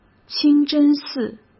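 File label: "清真寺", Mandarin Chinese; chinese flashcards; hsk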